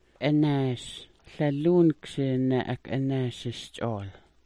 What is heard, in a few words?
"anaas", smalgyax